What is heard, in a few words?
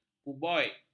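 "kuboid", Malay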